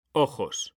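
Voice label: "ojos", Spanish, male; mekanta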